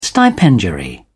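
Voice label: "stipendiary", English, British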